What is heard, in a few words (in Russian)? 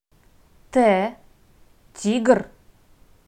"т", Russian